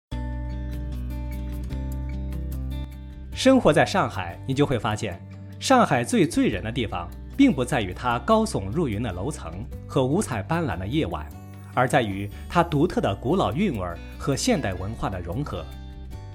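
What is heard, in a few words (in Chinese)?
宣传片样音生活在上海配音; 宣传片样音01生活在上海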